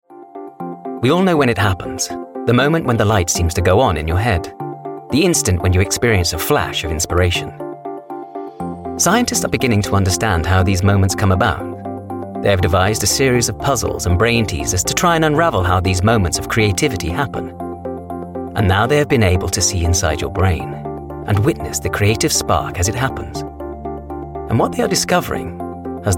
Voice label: NARRATIVE, English neutral, Direct, Informative, Thoughtful, Classic